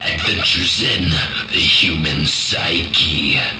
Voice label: the human psyche, male spoken word; MALE MISC

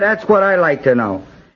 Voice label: thats what id like to know, MALE SPOKEN WORD; MALE MISC

